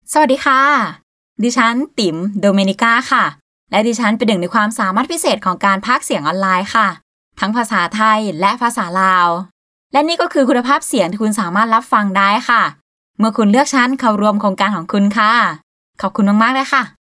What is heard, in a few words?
Thai Female Narrative